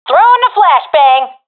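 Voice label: throwing flashbang, professional female; vo; agents; Source Model Packs; Counter Strike Model Pack; CS2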